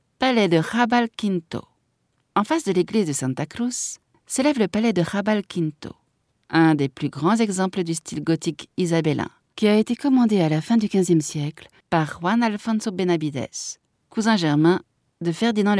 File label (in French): audioguia, frances; audioguia baeza